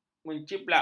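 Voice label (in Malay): "menjiplak", Malay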